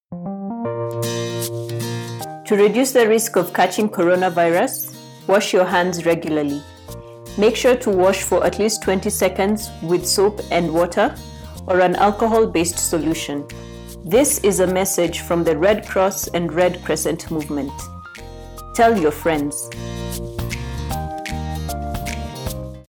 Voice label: Covid19 Radio Spots ENG Female